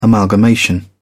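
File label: "amalgamation", English, British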